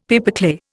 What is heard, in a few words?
"pipeclay", Indian; Dictionary